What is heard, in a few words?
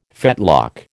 "fetlock", American